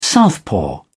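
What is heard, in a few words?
"southpaw", English, British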